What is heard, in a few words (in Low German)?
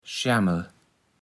"schaemmel", Platt